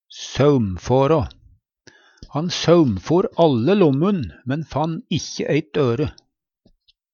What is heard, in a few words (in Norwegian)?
"saumfara", Numedalsmål